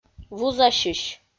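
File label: "вузасьысь", Komi, female; audio dict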